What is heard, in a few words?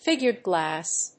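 "Figured glass", English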